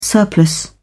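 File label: "surplus", British English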